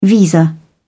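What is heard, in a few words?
"visa", English, British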